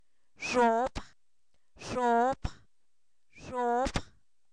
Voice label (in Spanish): maíz, Zapoteco, san miguel albarradas; comestible